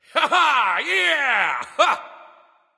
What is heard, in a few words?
fear; smite; voicepack; ullr